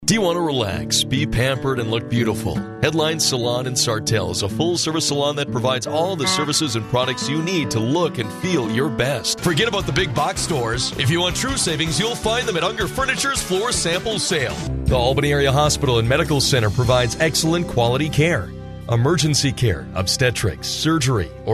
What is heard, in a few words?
Commercials